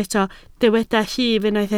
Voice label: "llif", Welsh

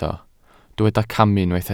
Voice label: "camu", welsh

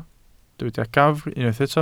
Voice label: "gafr", Welsh

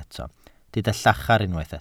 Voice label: "llachar", Welsh

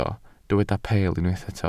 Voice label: "peel", Welsh

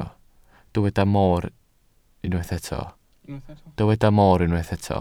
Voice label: "mor", Welsh

